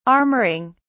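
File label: "armouring", British English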